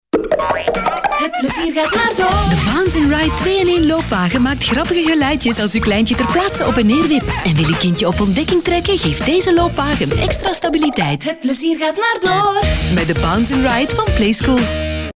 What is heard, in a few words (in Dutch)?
kids; Kinder; Bounce 'n Ride